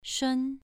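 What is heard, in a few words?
"shun2", Chinese pronunciation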